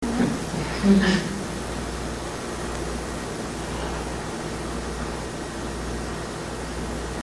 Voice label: "No", amplified